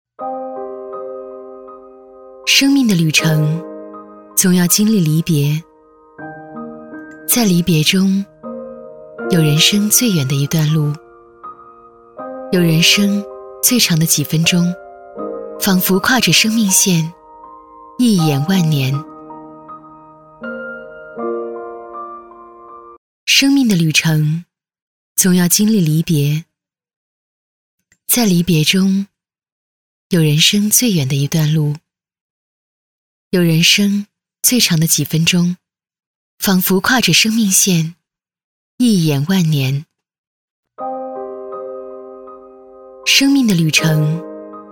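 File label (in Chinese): 女S112 国语 女声 旁白 知性沉稳 沉稳 娓娓道来 亲切甜美 素人; 生命的旅程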